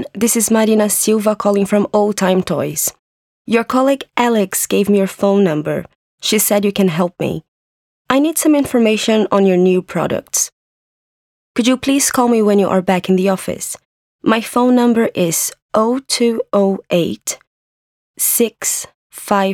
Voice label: A voicemail message